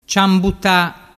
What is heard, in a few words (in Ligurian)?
"ciambuta", dialettu